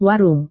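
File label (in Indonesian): "warung", Indonesian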